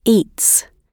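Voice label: "eats", British English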